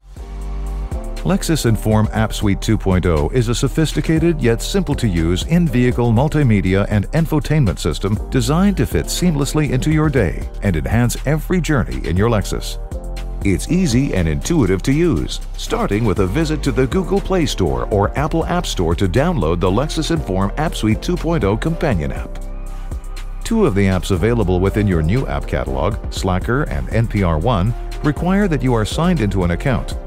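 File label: male, Canadian English, narration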